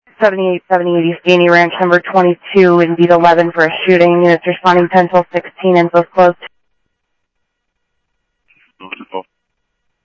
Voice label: Scottsdale PD Scottsdale Police, scanner audio